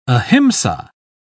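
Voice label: "ahimsa", British English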